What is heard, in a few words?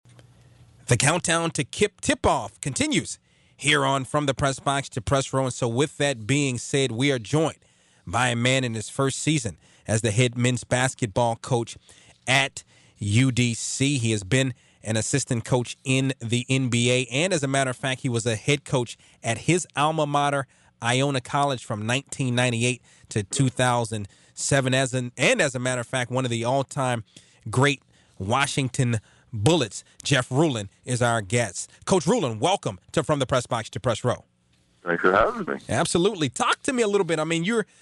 Radio Interview; Box Report